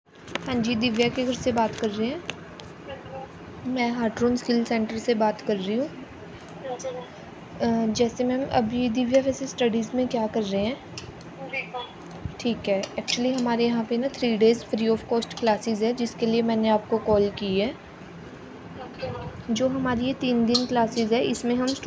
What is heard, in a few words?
call recording